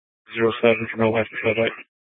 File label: Sheriff scanner; Shrf Mnt (Parks)